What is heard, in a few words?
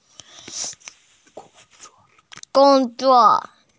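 "gong1zuo4" Mandarin Chinese, female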